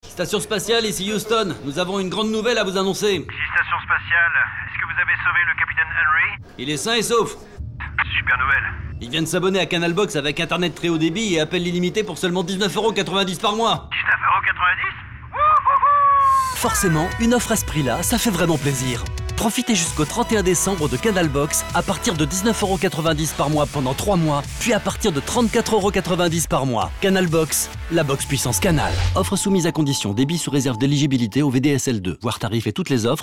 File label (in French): Radio; CANAL BOX; Espace Caraibe